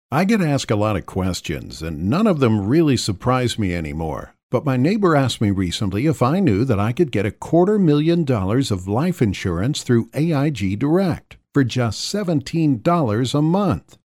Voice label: Conversational

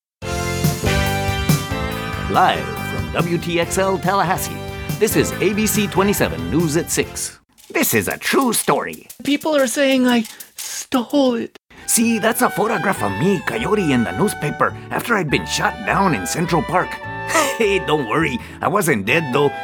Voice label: Characters English

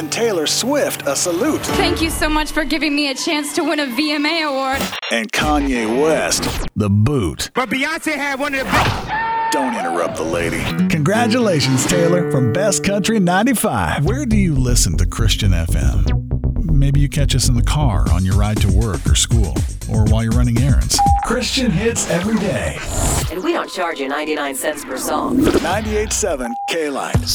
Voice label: radio imaging multi format

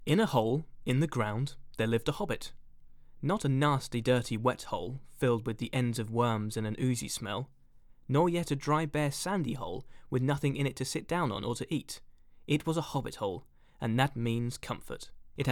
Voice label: reading; the hobbit

